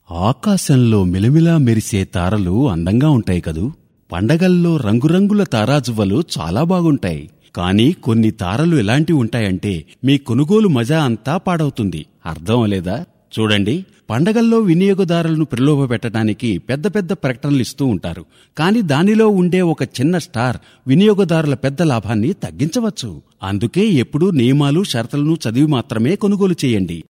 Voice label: telugu male

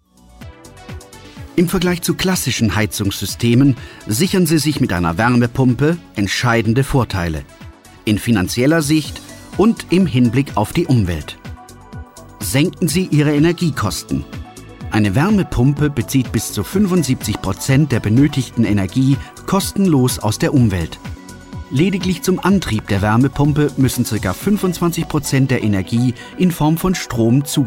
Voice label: german male

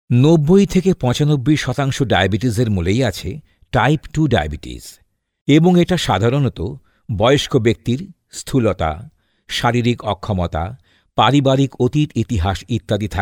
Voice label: Bengali Male

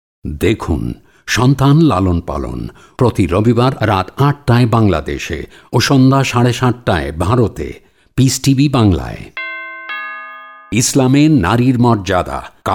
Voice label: Bengali Male

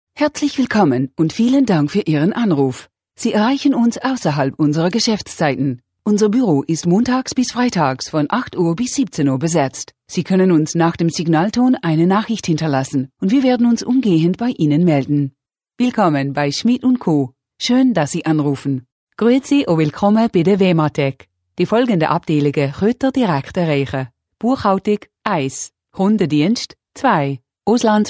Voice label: german female

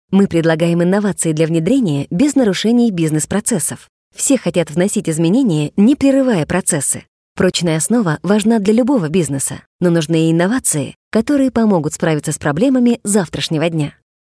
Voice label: Russian Female

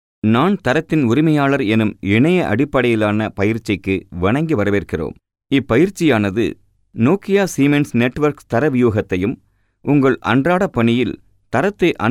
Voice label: Tamil Male

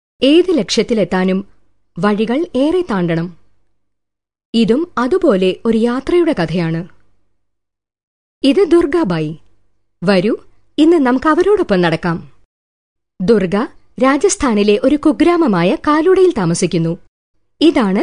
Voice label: Malayalam Female

